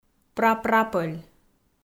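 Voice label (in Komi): "прапрапӧль" Komi, female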